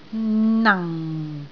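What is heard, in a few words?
"nang4", Cantonese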